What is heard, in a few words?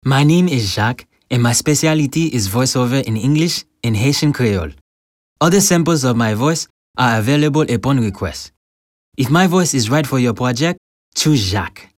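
Haitian English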